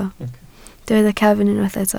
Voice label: "cefn", Welsh

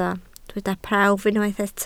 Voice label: "prawf", welsh

